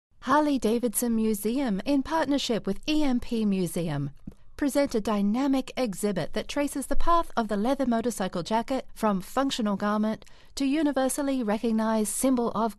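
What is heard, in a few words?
English Australian, female; accent of australia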